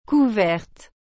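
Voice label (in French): "couverte", French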